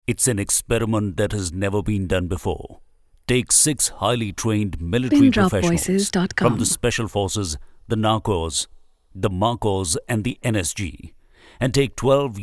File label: English